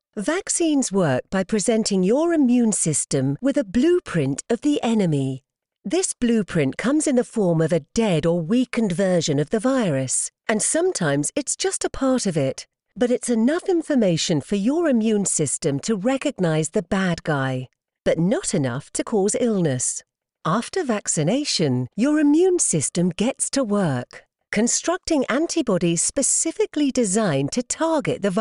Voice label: english british explainer videos

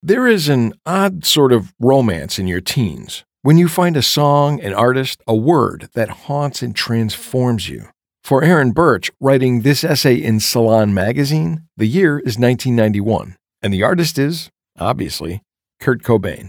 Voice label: english american narration

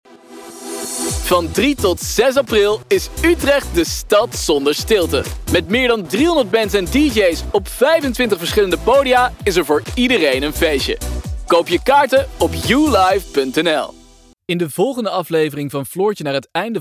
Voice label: dutch promos

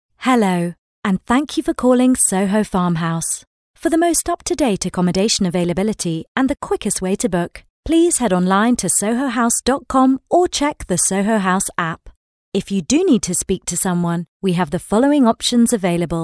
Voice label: english british ivr

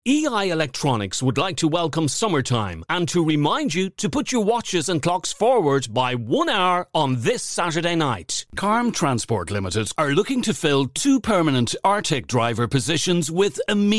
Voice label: english irish commercial; Professional English / Irish Voice Over, TV and Radio Presenter

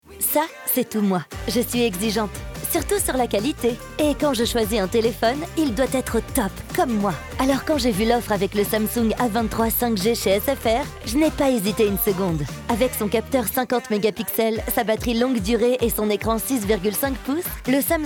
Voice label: french commercial

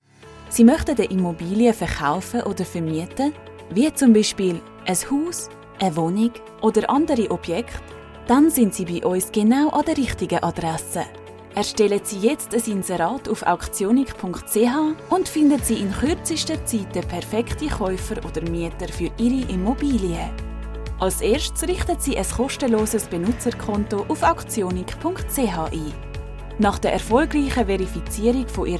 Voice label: german switzerland real estate

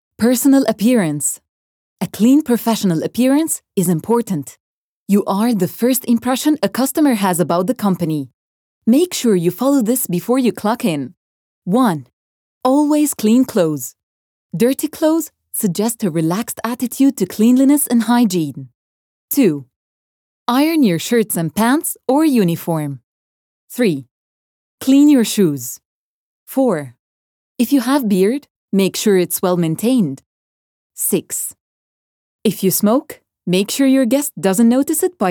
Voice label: english e-learning; arabic-voice-over-talent

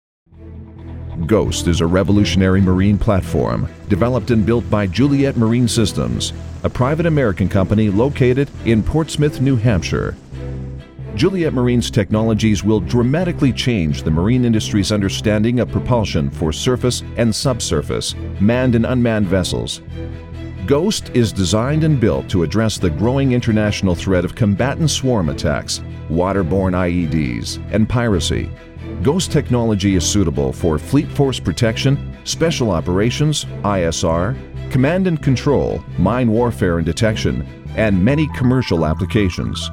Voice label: english canadian corporate videos; voice actors

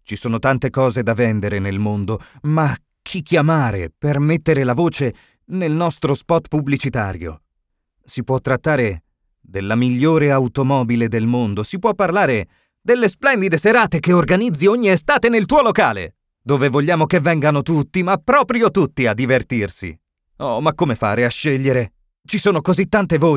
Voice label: italian promos

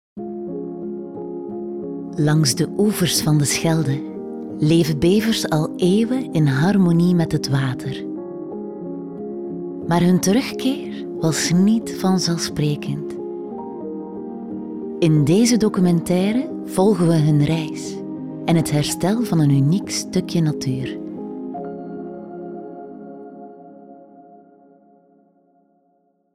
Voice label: flemish documentaries; flemish voice actors

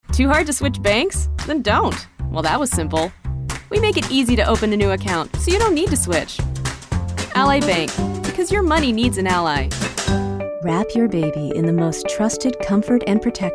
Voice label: english american commercial; United States; professional English voice actor

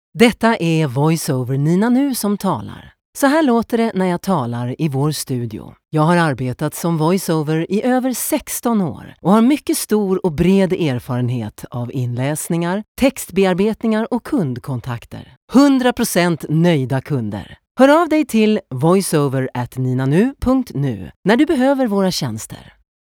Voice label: swedish documentaries

